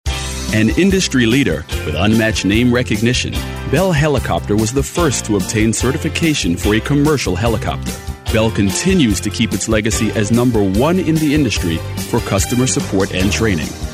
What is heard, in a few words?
30s African American Narration; Male